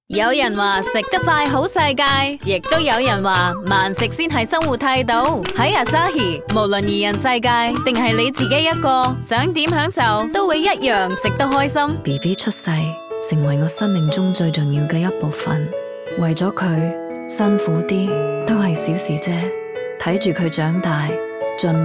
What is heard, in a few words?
languages women cantonese